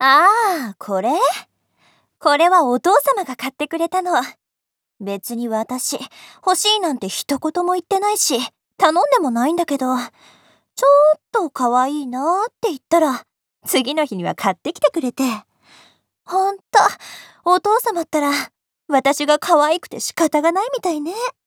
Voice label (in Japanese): 女子B